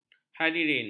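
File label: "hadirin", Malay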